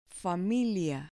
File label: "familia", Spanish